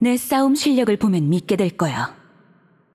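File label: voice over, Korean; Yunara Intro; bloom minigame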